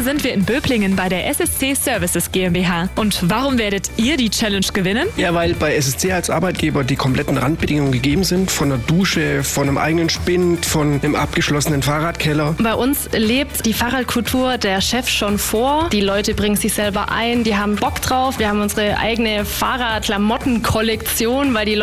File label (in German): Radkultur Spot; SSC-Services; Hitradio antenne1